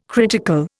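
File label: "critical", Indian English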